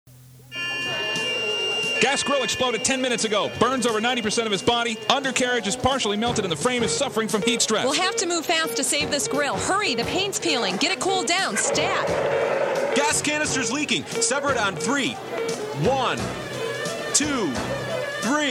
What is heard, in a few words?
Appliance Parts Full Commercial, voiceover; Yemma